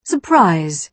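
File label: "surprise", British English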